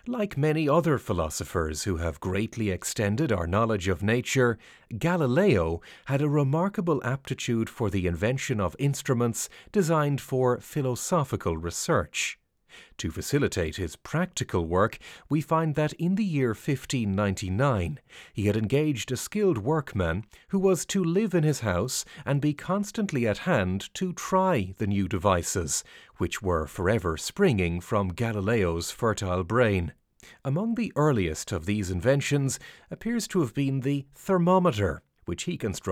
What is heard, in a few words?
audiobook; galileo